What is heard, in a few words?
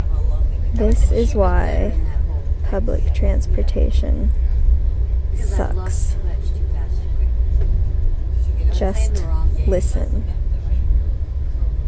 old ladies screaming about their crazy night overheard on train